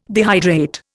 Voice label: "dehydrate", Indian